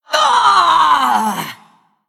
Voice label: Female Blood Elf Death

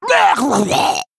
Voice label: Male Murloc Attack

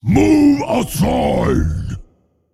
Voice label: Male Drakanoid Attack

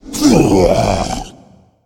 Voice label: Male Jailer Death

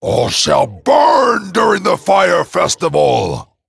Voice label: Male Dragon

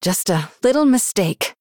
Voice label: Female Human Oops

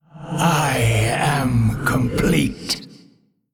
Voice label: Male Old God Intro

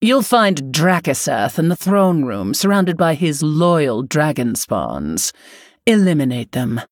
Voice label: Female Human; Lady Prestor

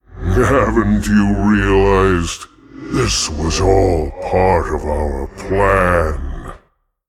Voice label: Male Demon

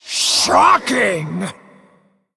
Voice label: Female Elemental Attack

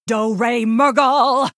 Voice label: Female Night Elf Attack

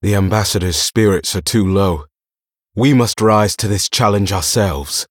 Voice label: Male Blood Elf

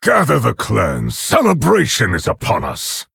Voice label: Orc Emote HAPPY HOLIDAYS

